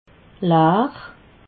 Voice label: "laar", alsace

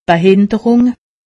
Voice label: "behinderung", alsace; medecine

